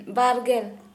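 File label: twenty, Santali, female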